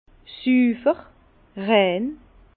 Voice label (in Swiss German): "sufer", Bas Rhin